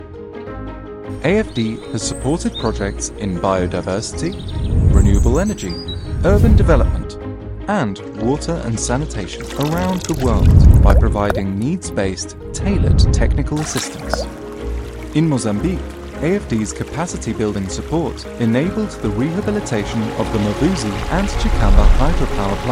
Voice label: Corporate